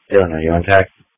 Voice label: Police Tactical Encrypted